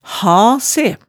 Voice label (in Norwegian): "ha se", hemsedalsmaalet